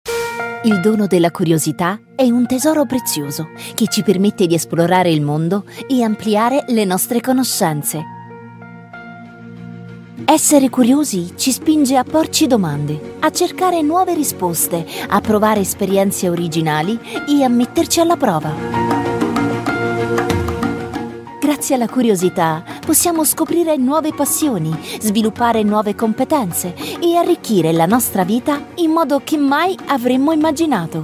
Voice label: italian female documentary

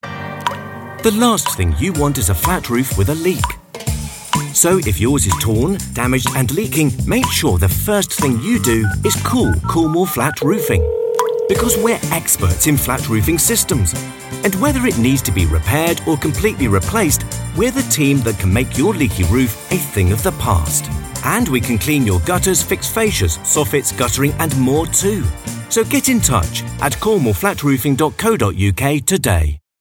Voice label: radio commercial upbeat

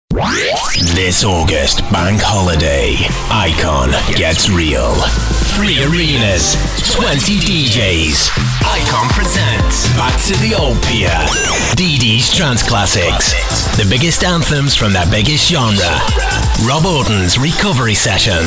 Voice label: promo

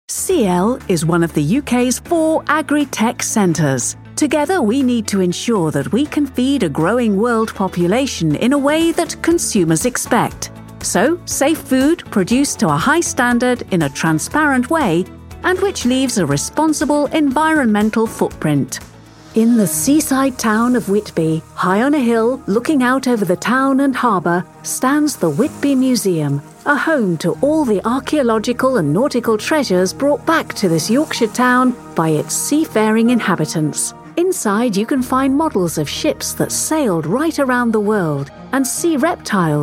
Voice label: corporate narration

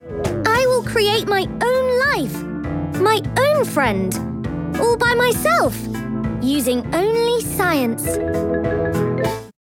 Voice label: character

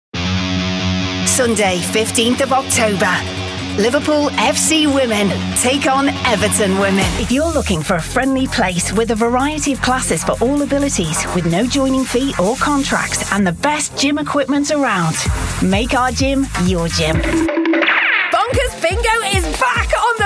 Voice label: native liverpudlian

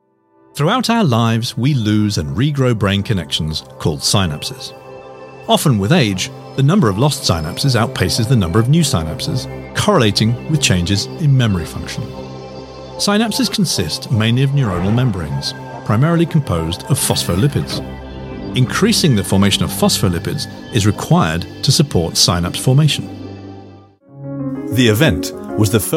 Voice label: corporate, Neutral British Accent Voice; Neutral British Accent Voice Actor

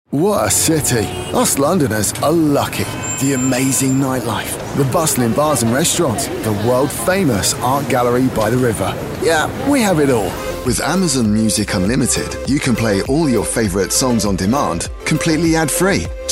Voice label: conversational

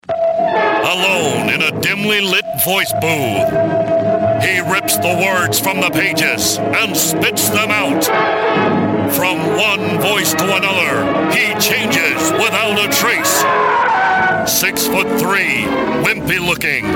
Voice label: b movie trailer style

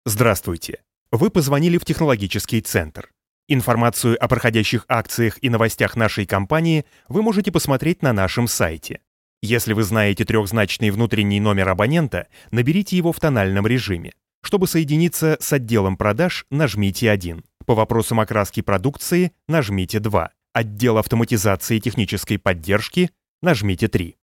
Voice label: Russian telephone

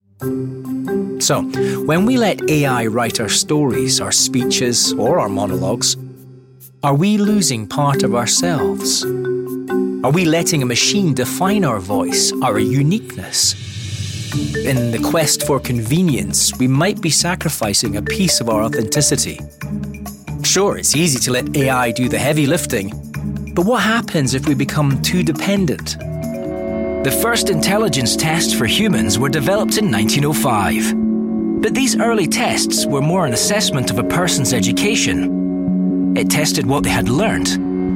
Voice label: narration

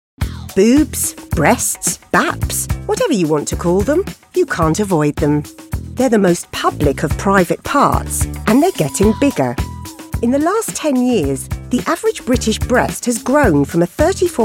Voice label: documentary, East London Natural Voice Actress

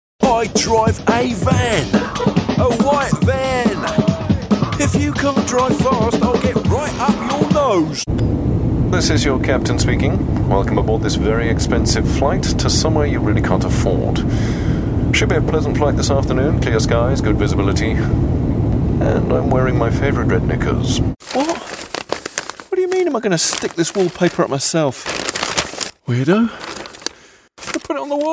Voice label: commercial

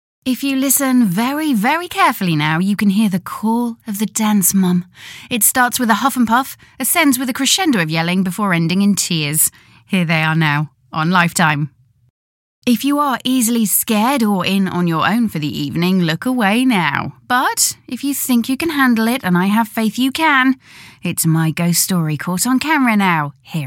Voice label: continuity radio

